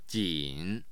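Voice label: "jin", Mandarin Chinese, tone 3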